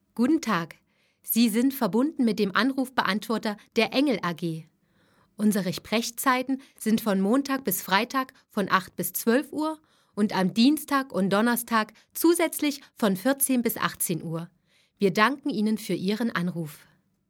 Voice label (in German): Ansage; EngelAG